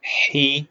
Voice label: short voyels arabic alphabet